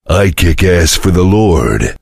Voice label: chitchat; svencoop; voice commands; Duke